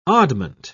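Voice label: "oddment", English, British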